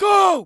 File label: Human Male Yells And Cheers Go